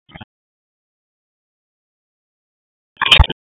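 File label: Police Southwest District Tac, radio; Raleigh; p25; wake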